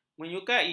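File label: "menyukai", Malay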